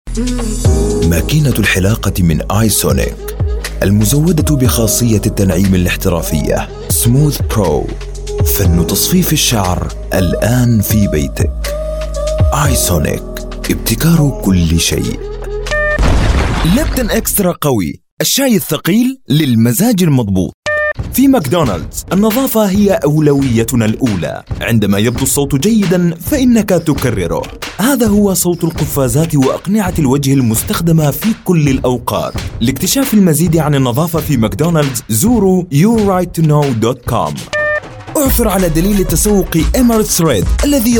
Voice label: arabic voice over male commercial